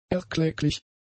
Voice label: "erklecklich", German; adjectives